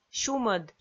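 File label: "сюмӧд" Komi, female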